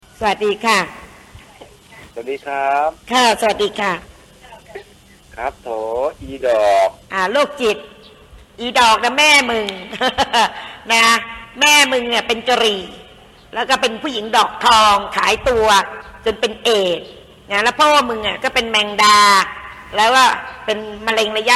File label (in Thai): เสียงลีน่าจังโมโห, เสียงลีน่าจังด่ากราด